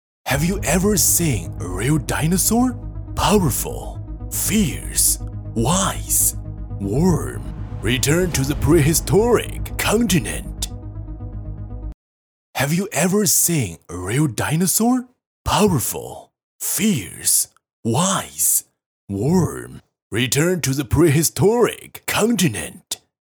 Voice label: 男 英语

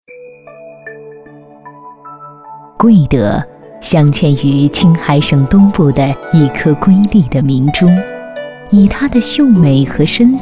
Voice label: 女 专题 贵德六月庙会

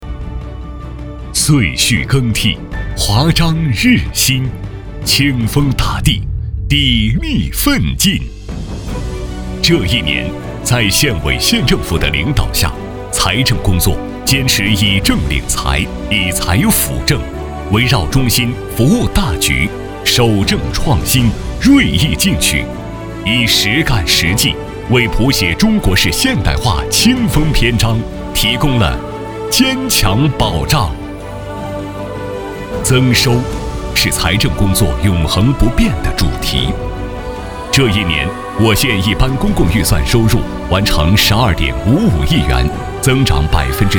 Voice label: 男 专题; 砥砺奋进担使命

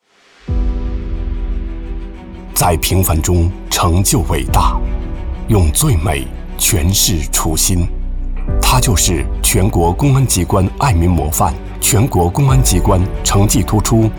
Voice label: 男 人物

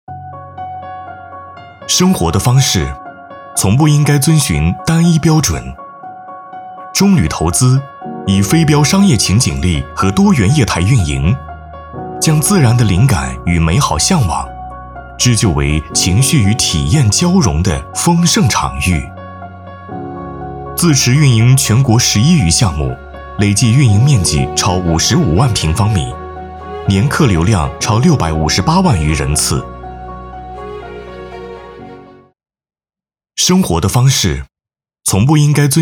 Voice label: 男 宣传 年轻磁性; 中铝投资

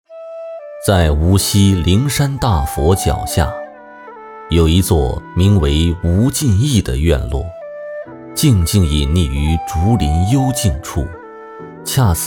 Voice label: 男 纪念馆解说

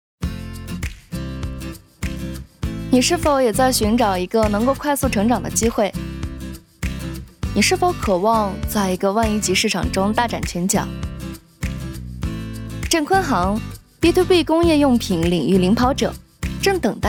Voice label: 女 素人 欢快

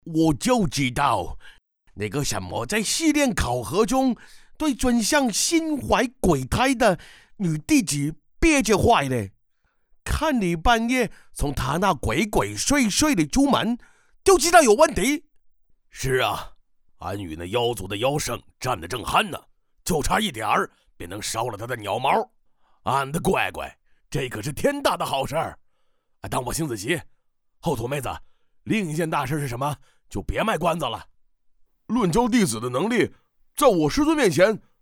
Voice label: 男 中文 角色; 角色小展

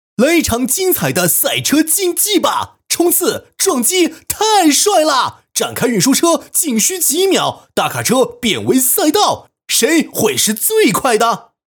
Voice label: 男 广告; 来一场精彩

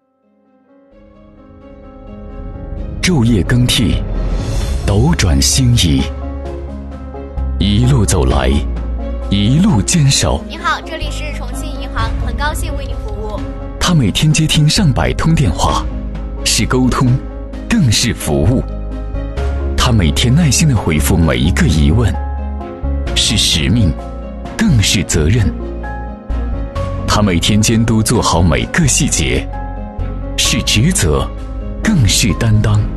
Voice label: 男 大气温情; 重庆银行

